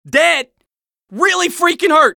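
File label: scout taunt head pain, male voice; taunts; tf2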